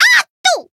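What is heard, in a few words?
Voice attack, Japanese; ilulu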